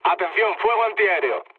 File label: damaged aa, mood low, speech; War Thunder